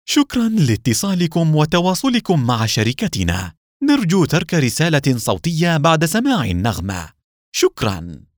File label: arabic ivr